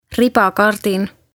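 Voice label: "ribakardin", Estonian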